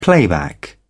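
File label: "playback", British English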